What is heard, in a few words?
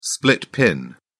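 "split pin", British English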